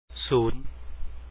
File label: zero, Lao; ສູນ; su2un